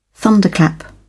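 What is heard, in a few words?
"thunderclap", British English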